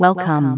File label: "welcome" female; computers